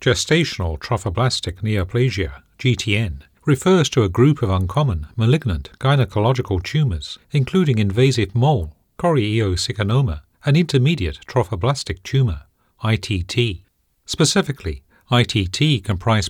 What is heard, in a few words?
Medical, voiceovers